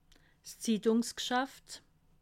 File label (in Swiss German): "zittungsgschaft", alsace